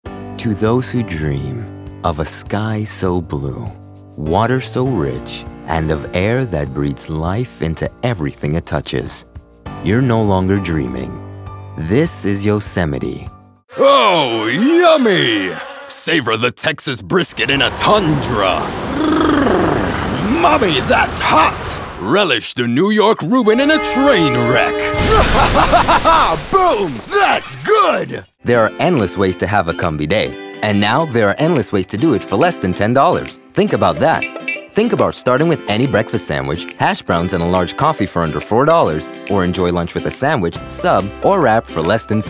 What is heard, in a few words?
Commercial